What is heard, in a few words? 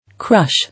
"Crush", English